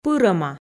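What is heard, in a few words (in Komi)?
"пырӧма", Komi, female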